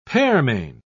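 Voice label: "pearmain", British English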